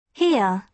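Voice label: "hear", British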